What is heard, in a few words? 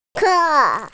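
"te4", Mandarin Chinese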